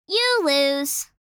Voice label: Teenage girl saying you lose; misc human